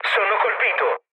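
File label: Italian aircraft destroyed mood low, speech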